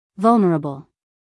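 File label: "vulnerable", American English, female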